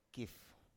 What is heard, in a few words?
"kif", Biak